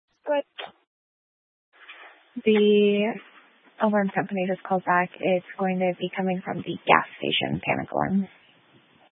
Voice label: Police Department Dispatch; Grand Junction